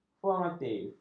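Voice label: "formatif", Malay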